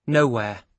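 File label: "nowhere", British English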